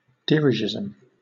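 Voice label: "dirigisme", British English; pronunciations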